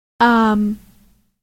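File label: "arm", British English; en GB; tdeedu; klettres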